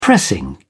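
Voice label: "pressing", British English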